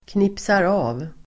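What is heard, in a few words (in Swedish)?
"knipsar av", Swedish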